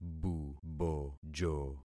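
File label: "bubojo", concatenated syllables; Affixing experiment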